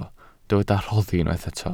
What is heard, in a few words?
"rhoddi", Welsh